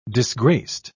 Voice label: "disgraced", pronounce